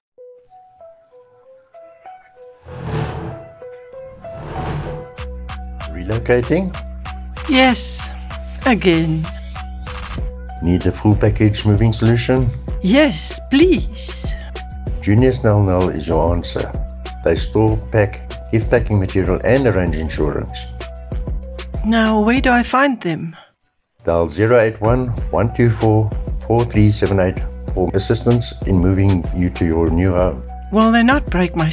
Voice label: ADVERT; NEL SNEL